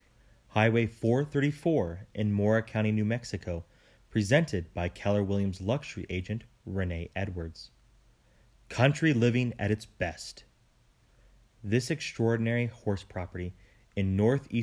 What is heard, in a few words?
voiceover